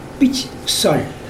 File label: black pig, mokilese